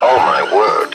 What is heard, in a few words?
"oh my word" male; Voice; expletives